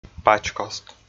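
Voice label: "пач кост", Komi, male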